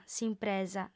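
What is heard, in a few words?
"s'impresa", female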